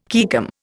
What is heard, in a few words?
"caecum", Indian